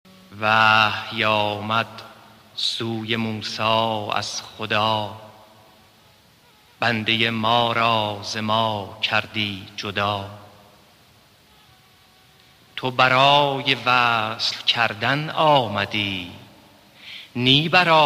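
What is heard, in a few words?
declamation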